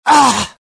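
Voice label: death, dialogue generic Italian; mohaa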